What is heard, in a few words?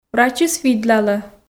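"врачыс видлалӧ", Komi, female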